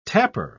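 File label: "tapper", British English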